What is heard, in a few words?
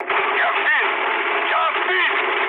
aircraft destroyed, mood med, speech ru